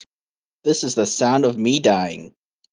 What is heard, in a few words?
voice message